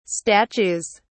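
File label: "statues", British English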